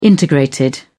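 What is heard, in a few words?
"integrated", British English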